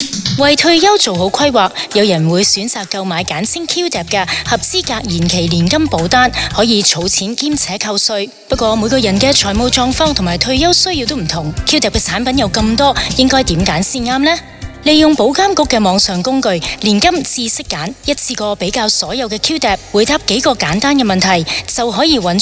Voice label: Radio Promo